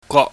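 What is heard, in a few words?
"gwok", Cantonese, mid level tone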